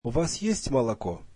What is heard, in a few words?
russian do you have milk